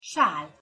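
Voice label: "sal", Hungarian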